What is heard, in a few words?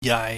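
"yai", Cantonese, low level tone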